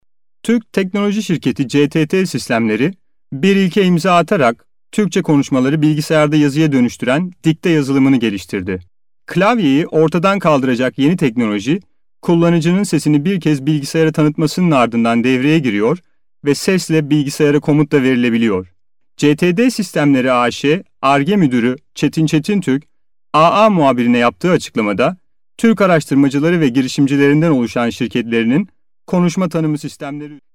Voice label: TURKISH - Male - Adult; Ker Sound Studio Shanghai China